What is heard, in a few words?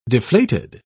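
"deflated", English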